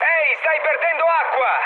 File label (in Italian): damaged water, mood high, speech it; War Thunder